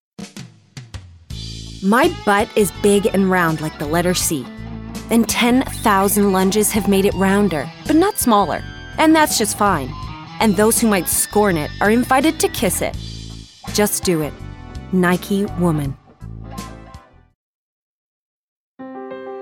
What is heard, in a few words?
female English USA accent commercial compilation